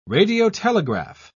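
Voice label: "radiotelegraph", British English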